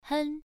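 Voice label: "hen", Mandarin Chinese, first tone, pronunciation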